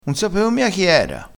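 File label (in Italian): "sapeo", vocabolario dialettale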